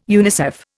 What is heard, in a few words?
"UNICEF", Indian